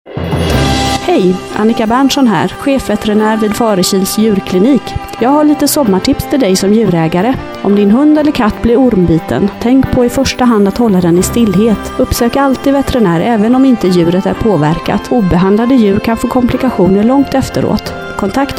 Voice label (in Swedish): REKLAM